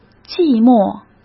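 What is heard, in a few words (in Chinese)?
"寂寞", Mandarin Chinese; hsk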